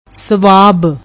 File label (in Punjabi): "swab", Punjabi